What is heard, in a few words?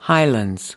"highlands", us